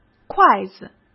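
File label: "筷子", Mandarin Chinese; hsk; chinese-flashcards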